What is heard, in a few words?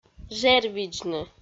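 "жервидзны", Komi, female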